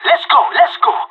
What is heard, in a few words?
radio lets go, pirate; Counter Strike Model Pack; CS:GO